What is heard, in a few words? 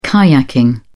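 "kayaking", British English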